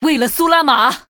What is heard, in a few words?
Female Nightborne Attack, zh